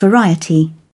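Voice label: "Variety", British English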